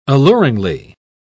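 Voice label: "alluringly", British English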